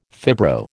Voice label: "fibro", American